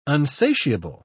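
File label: "unsatiable", English, British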